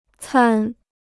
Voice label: "餐", Chinese

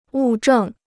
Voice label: "物证", Chinese

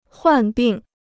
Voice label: "患病", Chinese

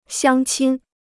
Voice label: "乡亲", Chinese

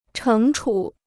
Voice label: "惩处", Chinese

